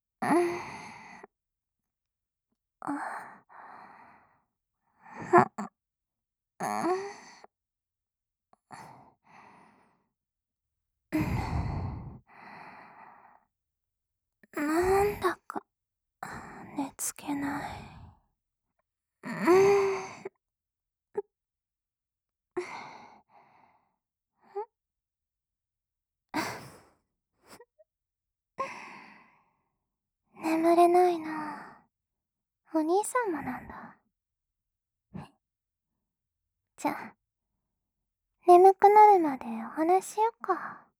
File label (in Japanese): イチャイチャ添い寝【添い寝・キス】, ASMR; SE無し